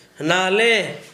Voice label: Gta vulture kite, Dialect: Hill; vulture, kite (bird)